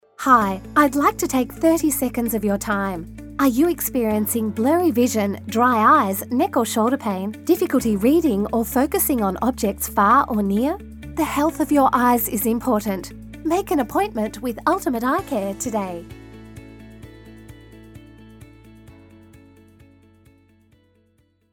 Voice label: 外籍英女, 疗养中心宣传

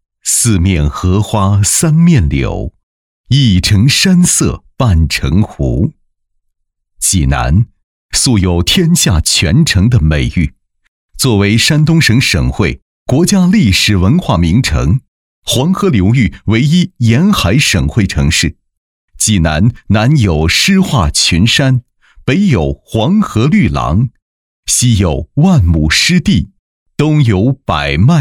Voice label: 男 城市宣传 年轻大气; 泉城济南

